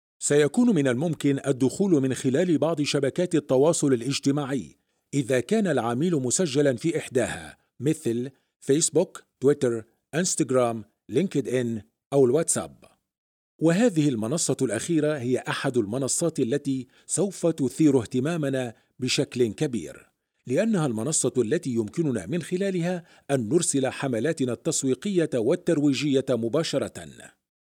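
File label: Arabic, E-learning; GoLocalise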